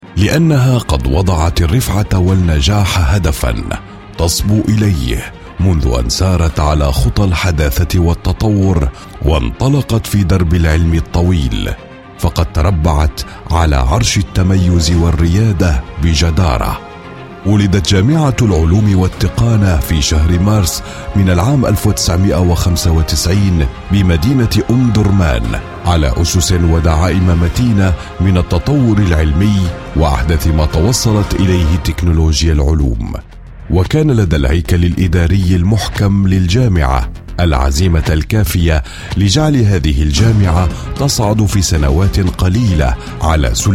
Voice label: arabic voice over male narrator

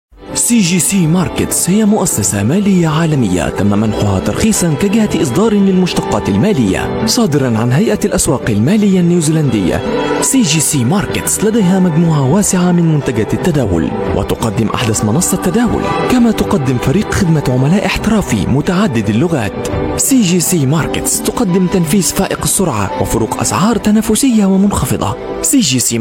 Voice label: arabic voice over male commercial

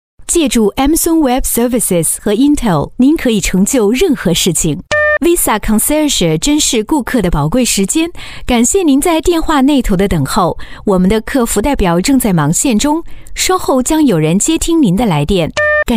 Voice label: chinese voice over female ivr

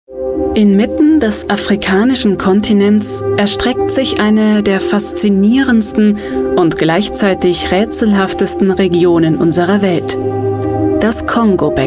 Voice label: german voice over female documentary

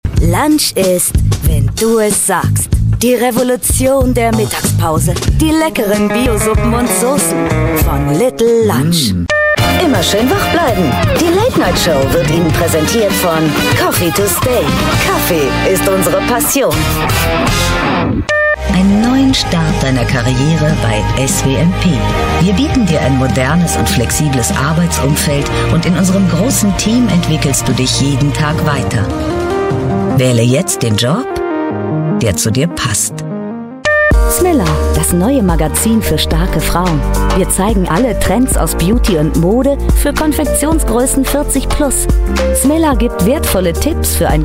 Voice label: german voice over female commercial

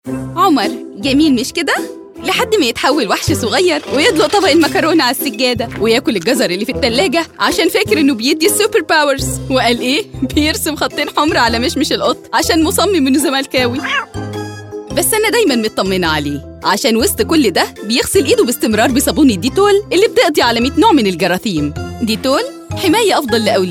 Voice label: arabic voice over female commercial

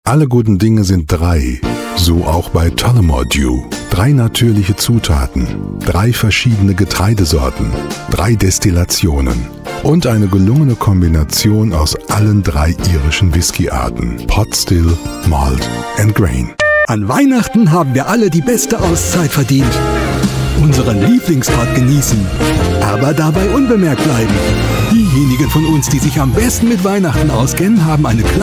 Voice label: german voice over male commercial

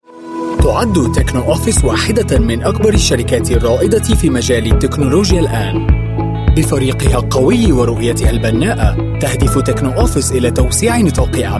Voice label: arabic voice over male narrator

